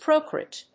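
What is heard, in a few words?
"Procrit", English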